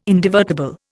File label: "indivertible", Indian